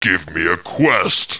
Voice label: annoyed; war2; humans; knight; Give me a quest